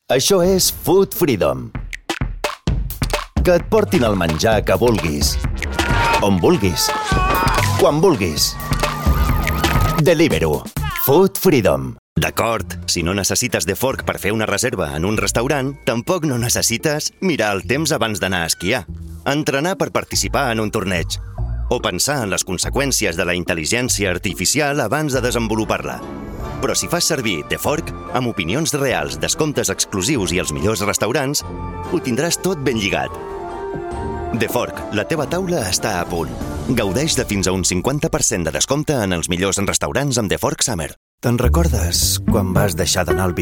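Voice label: Catalan Commercial; voice demos; GoLocalise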